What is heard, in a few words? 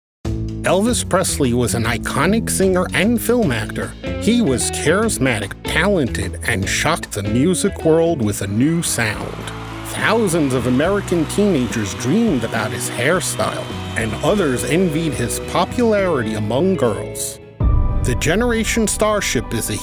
Narration